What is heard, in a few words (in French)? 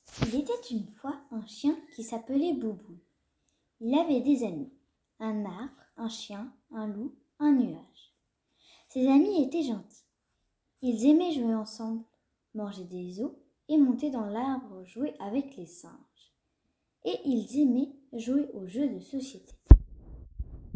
conte lu